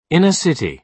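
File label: "inner city", British English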